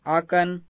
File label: "akn" Armenian